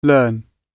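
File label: "learn", British English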